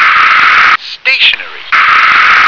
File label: "stationary", English